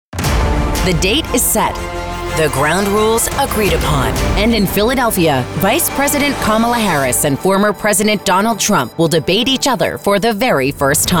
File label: News, voiceover